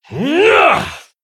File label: Male Human Attack